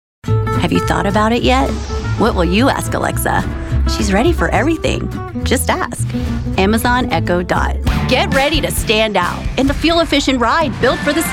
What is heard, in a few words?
Commercial Female